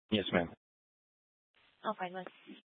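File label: police dispatch, scanner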